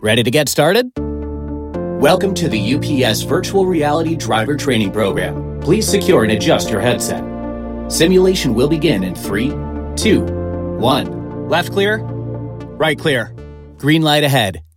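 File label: positive friendly immersive instruction; ups vr driver training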